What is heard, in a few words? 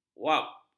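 "wap", Malay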